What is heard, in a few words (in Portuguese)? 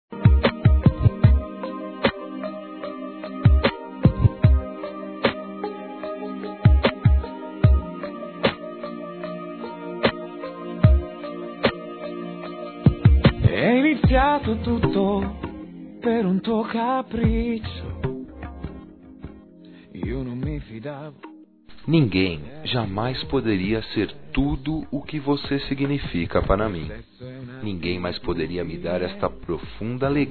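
ROMANTICA, Telemensagens, Românticas masculino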